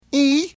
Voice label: ENG "E"

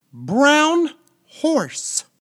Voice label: ENG brown horse

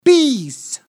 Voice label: ENG bees

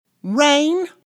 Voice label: ENG rain

